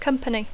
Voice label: "company", English

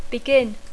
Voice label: "begin", English

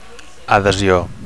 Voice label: "adhesio", Catalan; (f) support; membership; (f) adhesion

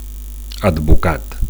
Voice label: "advocat", Catalan; (m / f) lawyer; attorney (Am.)

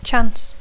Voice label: "chance", English

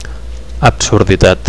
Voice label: "absurditat", Catalan; absurdity

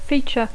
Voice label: "feature", English

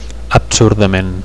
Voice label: "absurdament", Catalan; absurdly

